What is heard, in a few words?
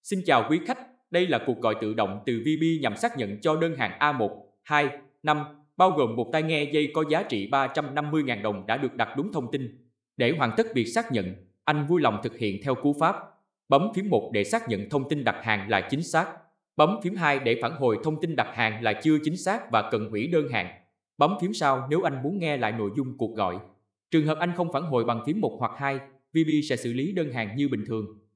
ivr